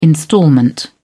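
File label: "instalment", British English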